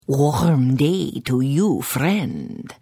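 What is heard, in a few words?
Hello, Khajiit female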